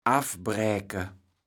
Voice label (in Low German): "affbräke", kaevels platt